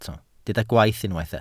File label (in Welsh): "gwaith" Welsh